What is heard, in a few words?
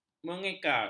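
"mengekar", Malay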